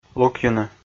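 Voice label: "лукйыны", Komi, male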